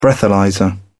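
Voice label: "breathalyser", British English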